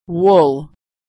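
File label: "wool", American English; vowel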